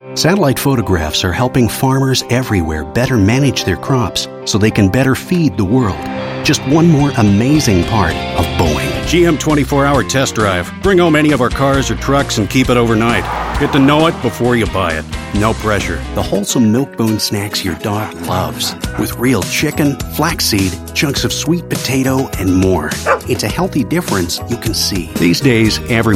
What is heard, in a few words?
Commercial